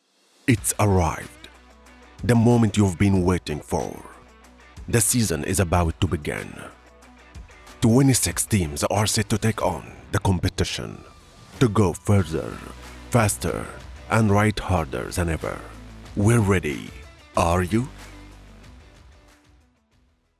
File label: Sport Social VO English